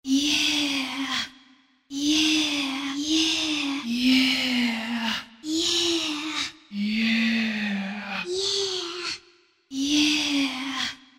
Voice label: Yeah..., Yamaha DJX Voices